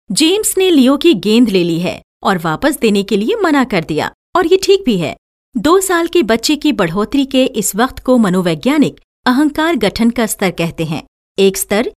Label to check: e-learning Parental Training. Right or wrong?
Wrong